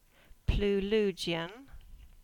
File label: Cornish; Ludgvan; place names